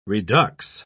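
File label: "redux", English, British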